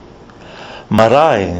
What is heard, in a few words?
"marae", kiwi english